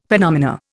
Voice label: "phenomena", Indian; Dictionary